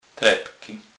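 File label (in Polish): "trepky", Kurpie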